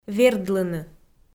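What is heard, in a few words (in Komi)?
"вердлыны", Komi, female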